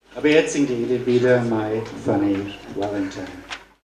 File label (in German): Ansage